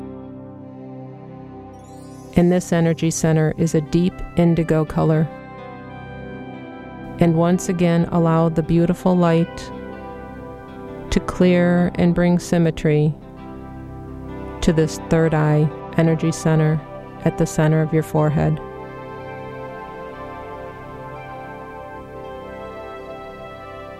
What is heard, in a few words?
meditation